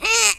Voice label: jump, French; speech